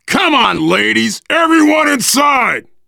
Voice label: stay together inside, voice, biker; Left 4 Dead 2; left4dead2 dlc3; survivor